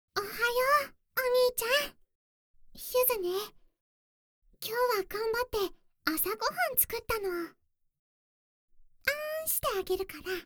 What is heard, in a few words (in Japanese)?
アラーム音声; ユズの朝ご飯; 特製♪アラーム音声